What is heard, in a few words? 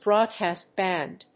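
"Broadcast band", English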